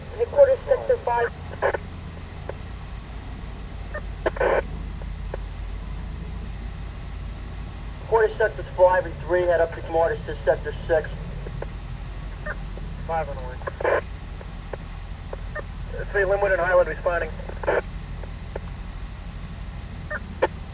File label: policeradio; urban riot